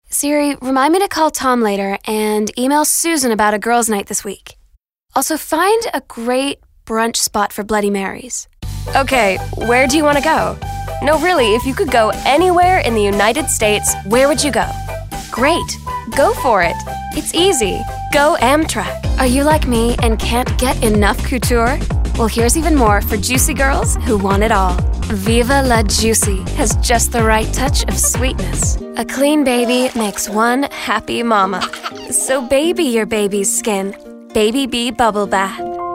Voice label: Commercial